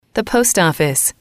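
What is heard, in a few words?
"the post office", English, female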